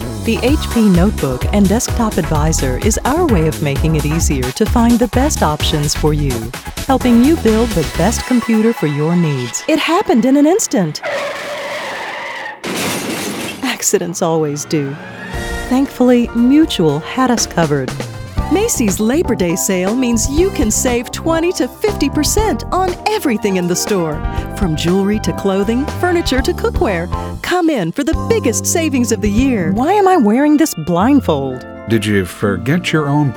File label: commercial